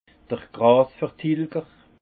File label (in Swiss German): "grasvertilger", alsace; vin; désherbant